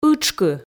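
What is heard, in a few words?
"ытшкы", Komi, female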